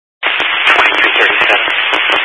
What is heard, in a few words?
Highway Patrol, scanner; DPS EAST; Dist 06 Casa Grande